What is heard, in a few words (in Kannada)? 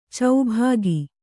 "ಚೌಭಾಗಿ", kannada